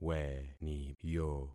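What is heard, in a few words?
"weniyo", concatenated syllables; Affixing experiment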